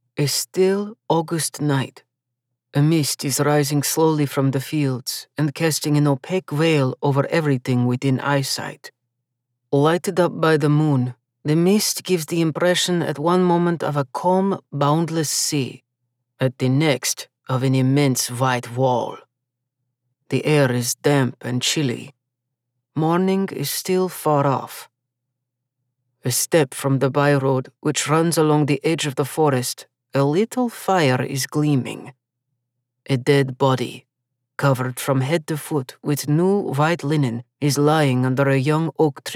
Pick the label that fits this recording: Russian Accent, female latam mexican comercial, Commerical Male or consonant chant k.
Russian Accent